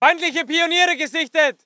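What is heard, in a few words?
voice german infantry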